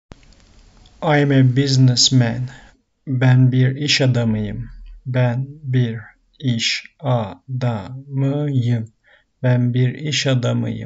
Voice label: I am a businessman, turkish; the talking turkish phrasebook